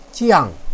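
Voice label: "jiang4", Mandarin Chinese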